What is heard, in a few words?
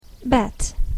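"bed", English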